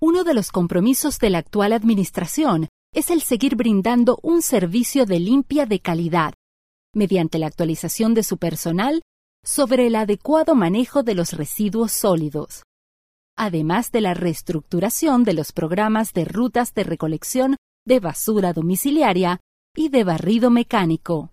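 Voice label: Spanish narration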